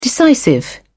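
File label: "decisive", British English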